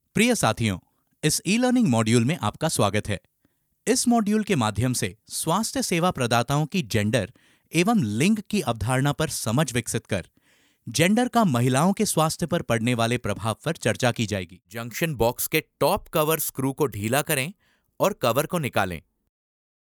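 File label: Hindi, female, E Learning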